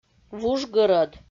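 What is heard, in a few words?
"вужгӧрӧд", Komi, female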